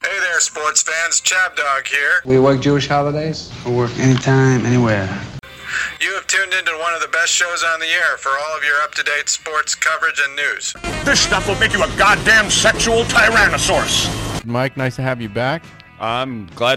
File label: radio promo; Chabdog